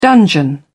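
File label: "dungeon", British English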